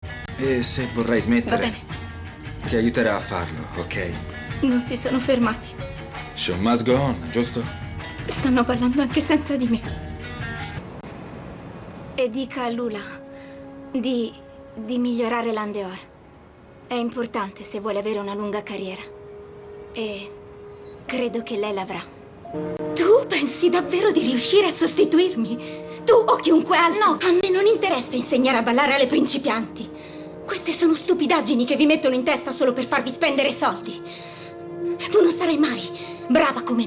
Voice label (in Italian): voice telefilm; solo telefilm